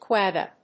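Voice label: "quagga", English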